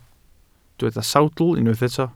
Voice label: "sawdl", Welsh